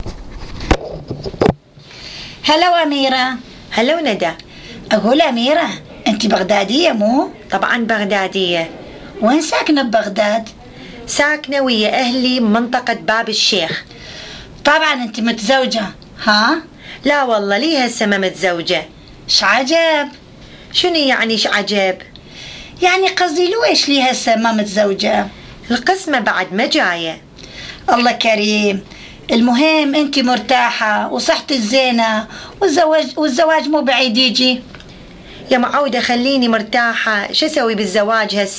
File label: Dialogue about al-Kilani Dwelt, Iraqi Dialect